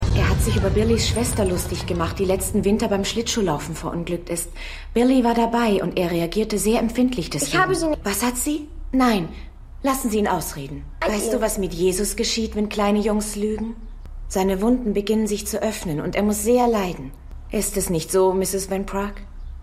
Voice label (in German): Junge Nonne; Talking To Heaven